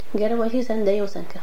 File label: quarrel, Domari